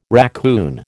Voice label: "raccoon", American

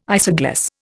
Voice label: "isogloss", Indian

